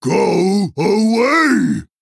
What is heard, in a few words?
Male Tortollan Attack